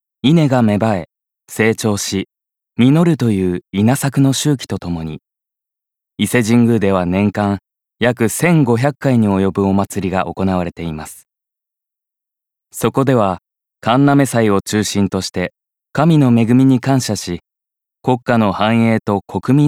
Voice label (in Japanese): 解説ナレ